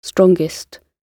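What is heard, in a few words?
"strongest", British English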